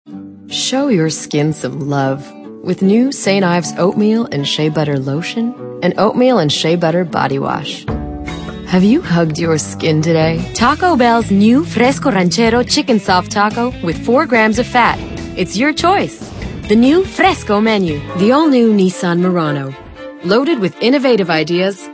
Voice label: female late 20s mid 30s contemp